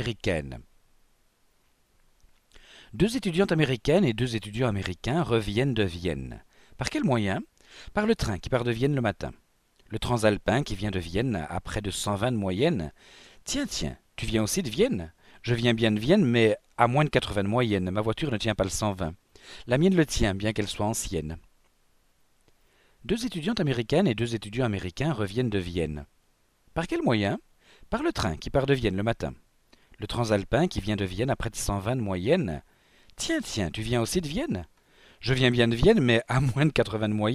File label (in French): opposition americain americaine